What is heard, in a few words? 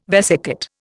"vesicate", Indian